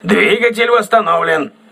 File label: voice message engine repaired, crew ships russian